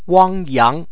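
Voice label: "wangyang", Chinese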